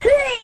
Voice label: "hey" kid; ooh ahh